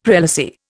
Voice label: "prelacy", Indian; Dictionary

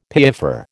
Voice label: "piaffer", American

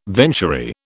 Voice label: "venturi", British

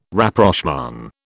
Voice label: "Rapprochement", British

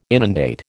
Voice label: "inundate", American

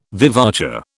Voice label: "vivace", British

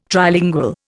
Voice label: "Trilingual", Indian

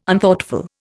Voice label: "Unthoughtful", Indian

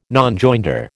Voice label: "Nonjoinder", American

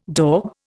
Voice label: "daube", Indian

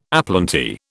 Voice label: "aplenty", British

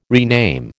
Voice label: "rename", American

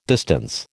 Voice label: "distance", American

